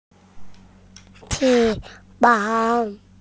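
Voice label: "wing", Mandarin Chinese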